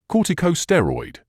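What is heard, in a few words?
"corticosteroid", British English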